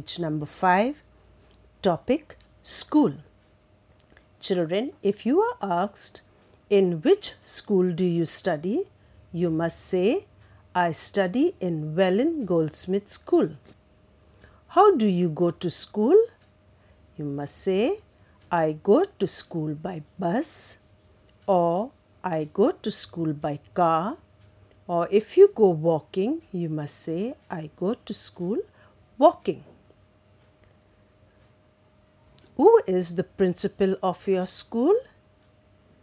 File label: Conversation